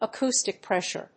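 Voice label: "acoustic pressure", English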